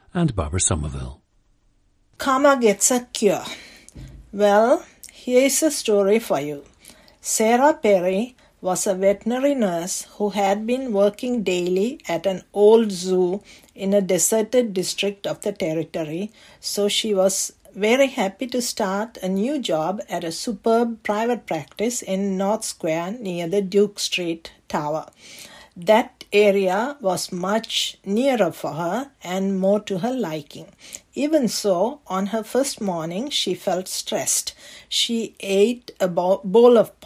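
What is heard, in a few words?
sri lanka, dialects of English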